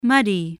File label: "muddy", voice, spelling